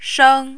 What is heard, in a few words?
"shang1", Mandarin